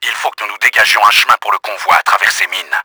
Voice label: DESTROY MINES, french; Battle Engine Aquila; XBOX